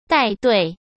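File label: "带队", Mandarin Chinese; hsk; chinese-flashcards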